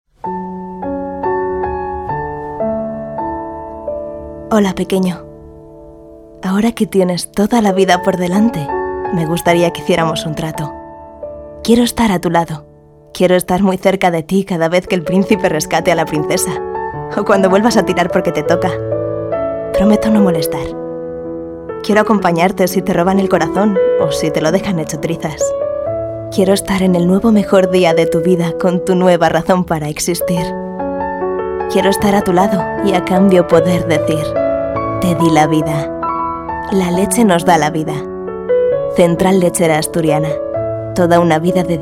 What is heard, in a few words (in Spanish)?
Publicidad; Centralechera